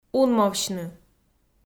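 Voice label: "унмовсьны", Komi, female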